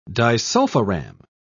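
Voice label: "disulfiram", British English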